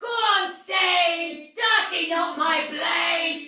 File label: See Player Soldier Female, Battle; Dialog; Sinecure